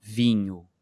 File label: "vinho", portuguese